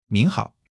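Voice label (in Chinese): "您好", Chinese; HSK1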